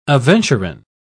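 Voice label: "aventurine", English, British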